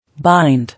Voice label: "Bind", English